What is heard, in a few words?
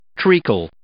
"treacle", English